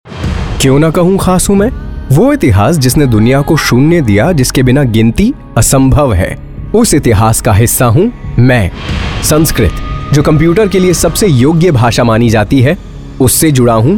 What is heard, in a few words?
Radio Spot Sample